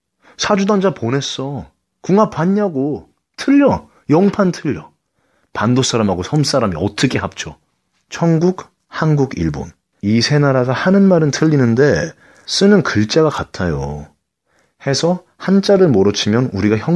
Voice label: Korean

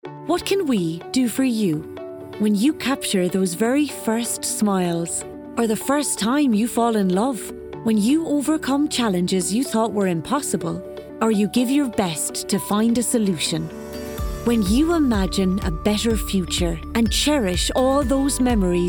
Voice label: Corporate

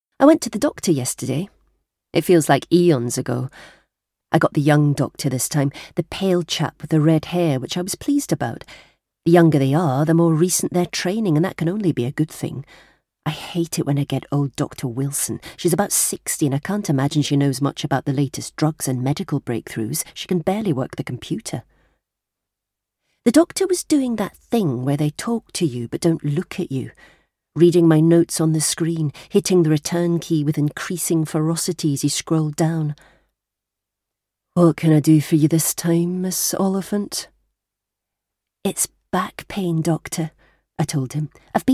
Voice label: Audiobook

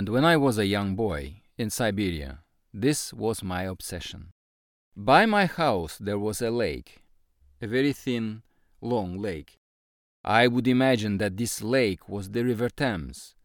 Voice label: English Russian Accent

